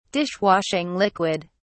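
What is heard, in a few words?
"dishwashing liquid", British English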